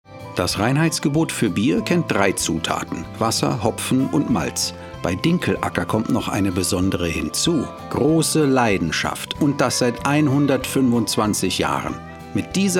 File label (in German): Werbung; Dinkelacker